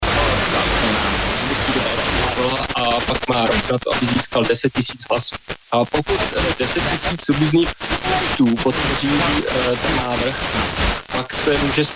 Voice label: czech